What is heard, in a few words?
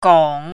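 "gong3", Chinese pinyin, third tone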